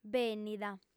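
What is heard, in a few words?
"bènnida", female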